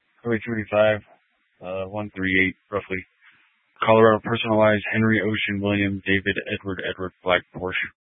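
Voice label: Troop 2A Dispatch, scanner audio recording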